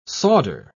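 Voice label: "sawder", English, British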